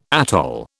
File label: "atoll", British